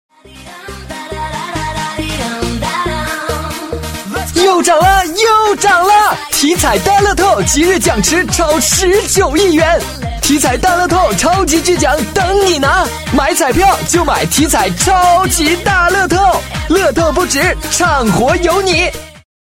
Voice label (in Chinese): 促销广告 配乐, 国语男声配音, 飞碟说广告; 大乐透